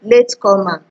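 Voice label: "late comer", Nigerian Pidgin, female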